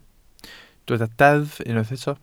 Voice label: "deddf" Welsh

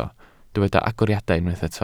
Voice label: "agoriadau", Welsh

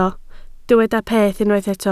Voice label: "peth", Welsh

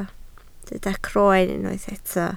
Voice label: "croen", Welsh

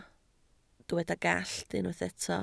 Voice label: "gallt", Welsh

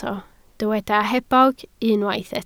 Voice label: "hebog", Welsh

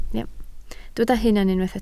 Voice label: "hunan", Welsh

